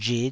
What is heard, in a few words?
"rhit5", taiwanese